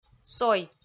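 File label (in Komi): "сой", Komi, female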